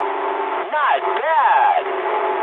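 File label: English trick mood med; War Thunder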